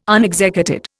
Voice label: "unexecuted", Indian